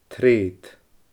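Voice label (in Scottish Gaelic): "treud", Scottish Gaelic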